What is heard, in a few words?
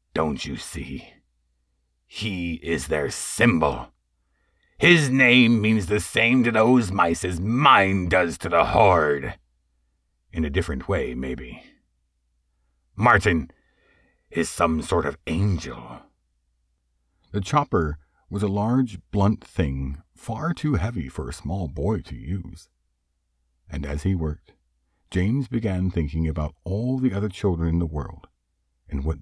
childrens book sample